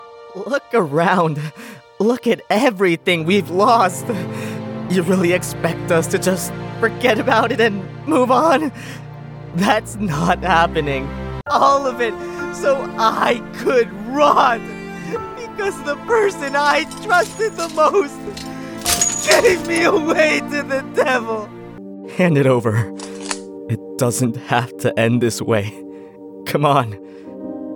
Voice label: Interactive, Male Latino Bilingual Voice Actor (English & Spanish); experienced, professional, bilingual, versatile male voiceover